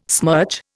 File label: "smirch", Indian